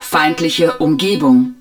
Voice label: HUD hostile environment, german

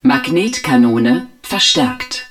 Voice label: rail gun augmented, german; Battle Engine Aquila; XBOX

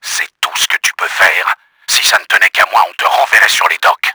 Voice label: ANGRY, french; KRAMER; Battle Engine Aquila

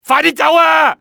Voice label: "run", male; XBOX; civ

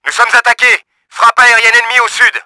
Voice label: FIGHTER ATTACK, french; Battle Engine Aquila; XBOX

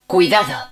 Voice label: N HUD Warning, Spanish

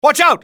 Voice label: watchout, english male; XBOX; civ

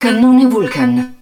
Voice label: hud vulcan cannon, Italian; Battle Engine Aquila; XBOX

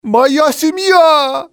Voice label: my family, male; XBOX; civ

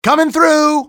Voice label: coming thru, english male; XBOX; civ

